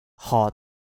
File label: "hot3", cantonese